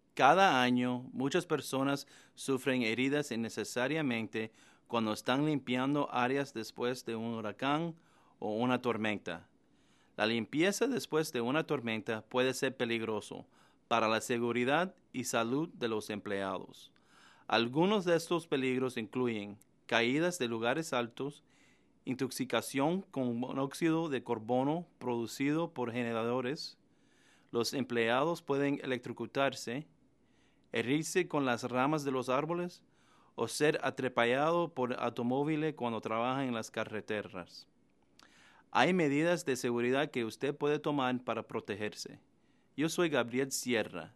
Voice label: Hurricane Cleanup Spanish; osha